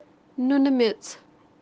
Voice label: "noonumets" saline soil kashmiri